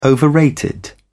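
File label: "overrated", British English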